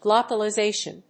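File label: "glocalization", English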